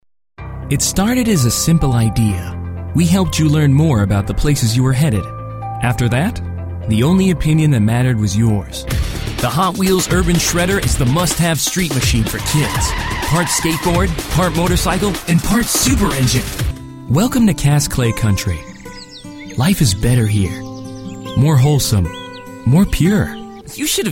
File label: COMMERCIAL